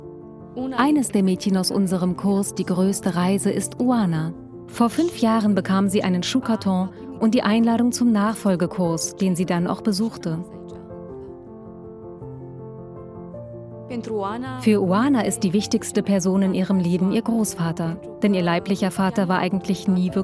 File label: VoiceOver